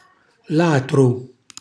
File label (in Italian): "latru", Calabrese; ladro; cucina calabrese; Glossario